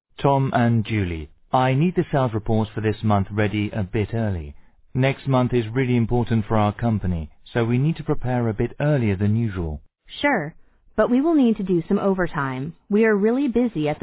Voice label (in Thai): บทพูดสามคน